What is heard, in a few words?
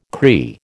"Cree", American